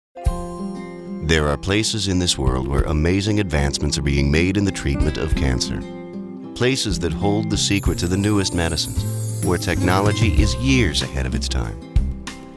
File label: NARRATION, voice over